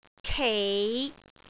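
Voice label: "kei5", Cantonese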